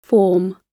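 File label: "form", British English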